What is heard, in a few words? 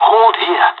radio bot hold, pirate; vo; Counter Strike Model Pack; CS:GO